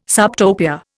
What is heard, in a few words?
"subtopia", Indian